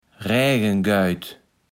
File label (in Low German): "Rägengeut", Platt